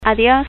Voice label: span bye